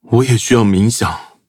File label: touch, zh hant; cookie run